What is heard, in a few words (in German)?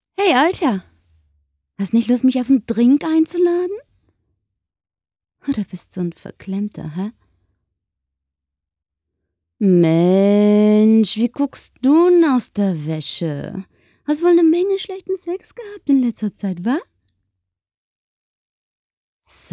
Tuerkische sprecherin voice